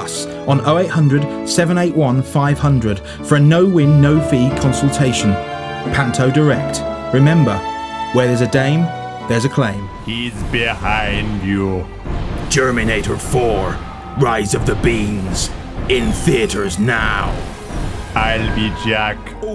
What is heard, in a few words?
spoof advert trailer